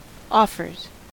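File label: "offers", American English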